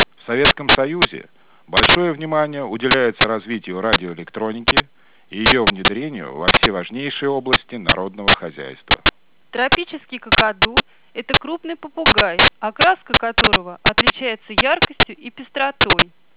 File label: speech 30ms 10 percent err